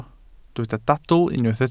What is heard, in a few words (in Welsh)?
"dadl" Welsh